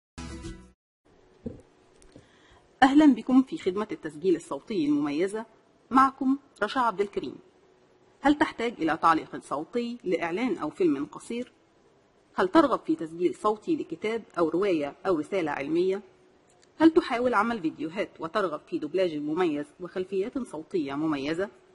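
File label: Arabic, female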